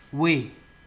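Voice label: "way", Nigerian Pidgin, male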